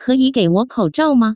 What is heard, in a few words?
"kouzhao", Chinese, Taiwan; pharmacy yaoju zhengzhuang